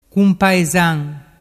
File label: "cumpaesan", dialettu